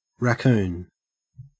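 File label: "raccoon", Australian English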